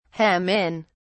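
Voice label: "hem in", British English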